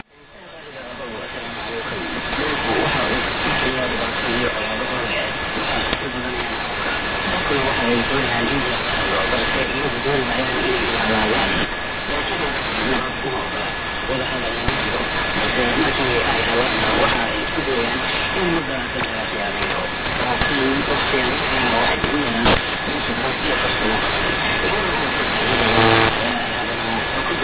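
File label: Spanish AM; 11885,0; Voice of Vietnam; Hanoi-Son Tay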